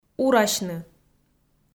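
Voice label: "урасьны", Komi, female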